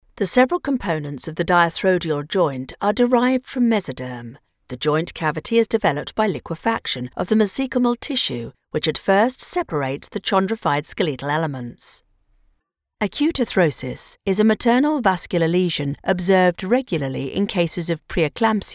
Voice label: medical narration